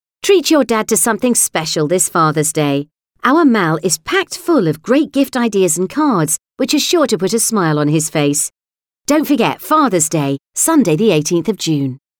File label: UK Fathers Day Female